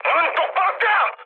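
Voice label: Korean player friendly fire mood high; War Thunder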